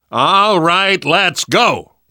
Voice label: move on, survivor voice; Left 4 Dead 2; left4dead2 dlc3